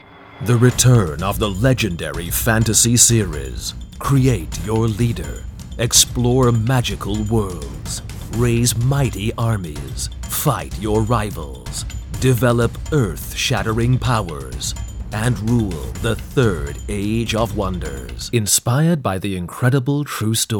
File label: US UK epic trailer